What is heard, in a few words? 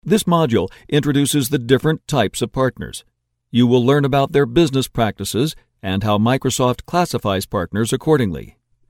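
American English, e-Learning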